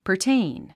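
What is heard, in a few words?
"pertain", English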